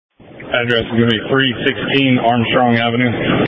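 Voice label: Emergency Medical Services Dispatch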